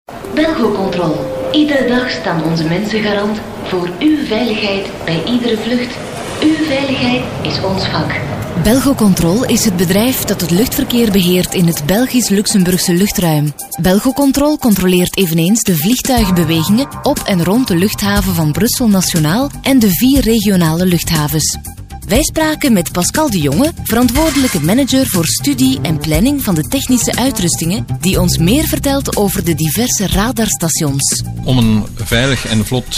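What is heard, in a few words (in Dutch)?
flemish female narration; dutch; voice demos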